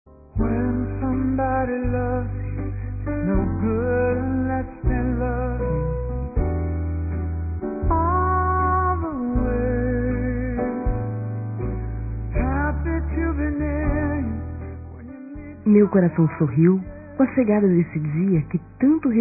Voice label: ROMANTICA F, Telemensagens Dia dos Pais; Telemensagens 2017

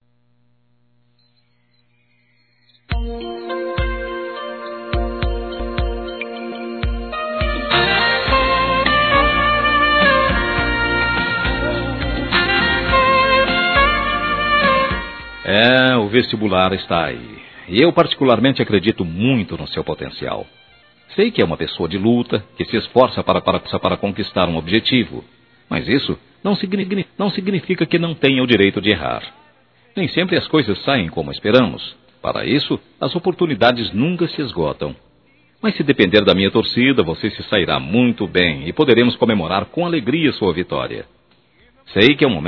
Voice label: Vestibulando Masculino, Telemensagens; Telemensagens 2017; Formaturas

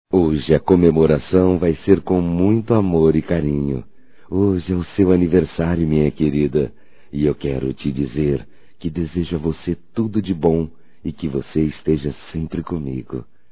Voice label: ROMÂNTICO, Românticas masc

